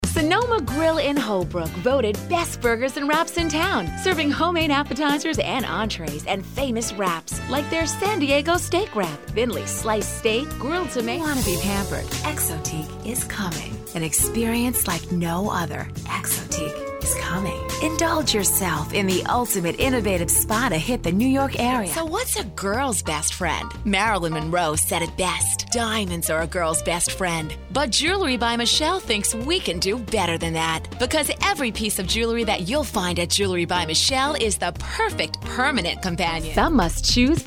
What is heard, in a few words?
Voice-Over